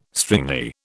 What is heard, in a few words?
"stringy", British